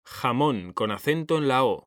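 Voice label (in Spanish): "jamón", Spanish, male; mekanta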